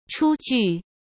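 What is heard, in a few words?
"出具", Mandarin Chinese; chinese flashcards; hsk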